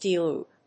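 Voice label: "D loop", English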